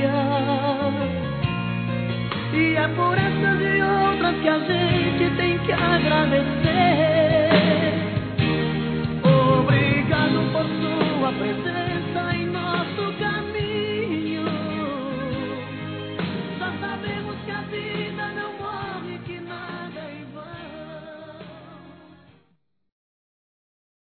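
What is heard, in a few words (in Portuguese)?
ANO NOVO NEUTRO, Feminino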